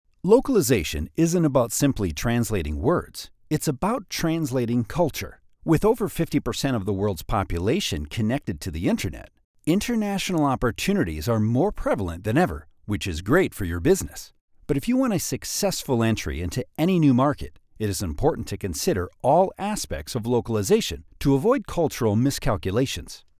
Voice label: english usa male